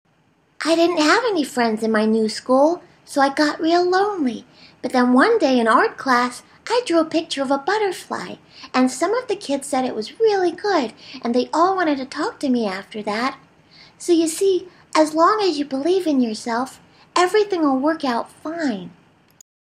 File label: kid